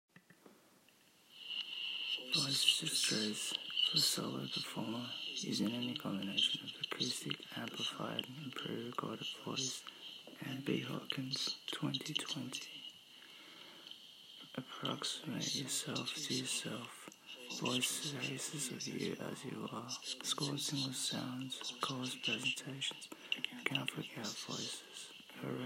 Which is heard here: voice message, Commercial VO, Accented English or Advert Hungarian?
voice message